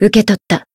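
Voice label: healed, Japanese; hiina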